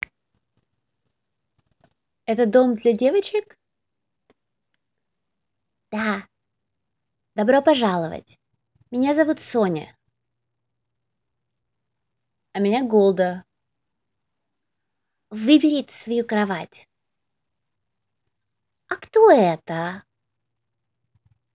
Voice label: Voice Over English and Russian